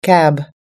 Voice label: "cab", us english